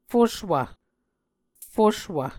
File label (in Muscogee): "fuswv", Muscogee